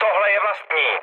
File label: player friendly fire, speech cze, mood low; War Thunder